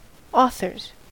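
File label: "authors", American English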